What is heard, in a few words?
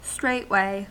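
"straightway", US English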